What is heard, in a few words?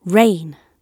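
"rain", British English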